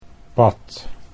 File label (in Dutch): "pad", everyday words